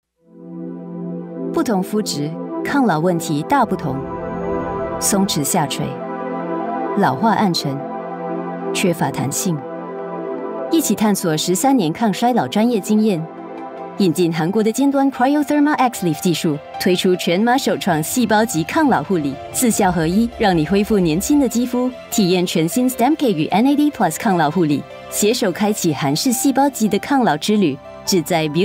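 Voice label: MANDARIN - COMMERCIAL Professional, Trustworthy, Calm, Positive; MANDARIN - COMMERCIAL (Beaute Clinic) Professional, Trustworthy, Calm, Positive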